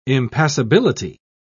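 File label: "impassibility", British English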